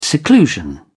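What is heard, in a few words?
"seclusion", British English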